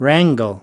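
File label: "wrangle", US English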